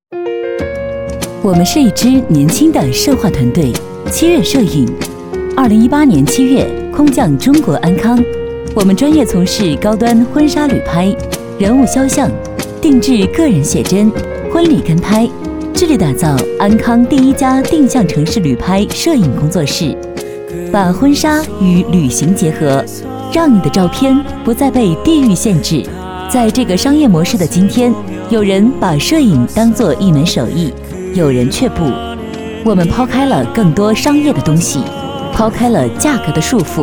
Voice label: 女, 广告; 我们是一支年轻的摄化团队

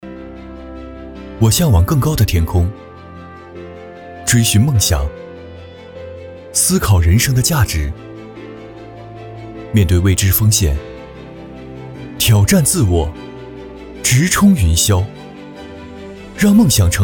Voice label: 男 产品宣传 阳光年轻; 大疆

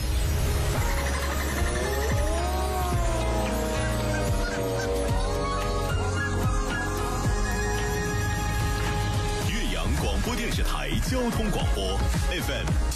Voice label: 男 电台节目包装; 岳阳交通广播频宣

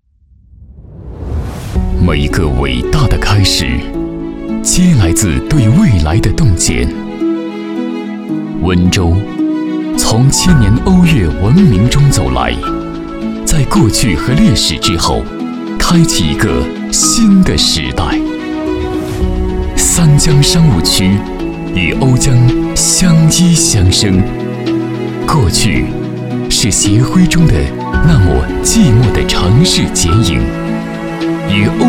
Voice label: 男 科技感; 瓯江

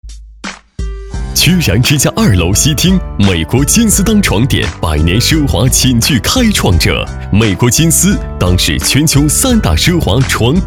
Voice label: 男 广告 舒缓介绍类

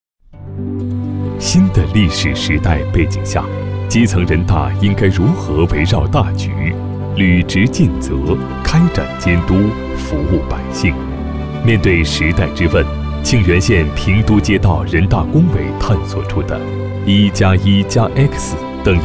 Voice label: 男 感情叙述; 屏都人大

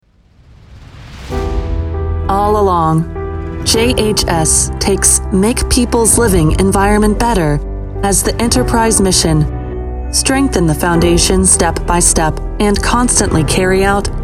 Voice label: 外籍英女 专题 磁性讲述

